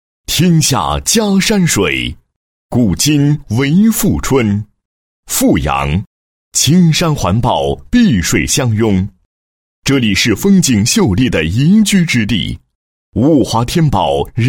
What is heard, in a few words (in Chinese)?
男 学校 三十周年巡礼, 配音案例; 富春第三小学